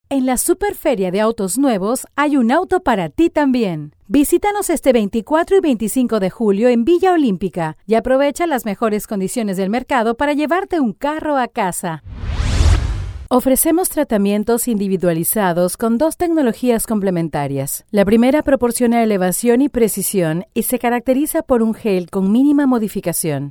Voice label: Peruvian accent